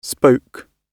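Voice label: "spoke", British English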